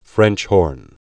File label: "horn" word; Musical Instruments